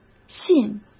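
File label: "信", Mandarin Chinese; chinese flashcards; hsk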